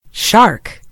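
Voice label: "shark", American English; gcompris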